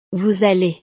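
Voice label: "vous allez", French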